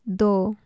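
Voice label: "doo two" Urdu female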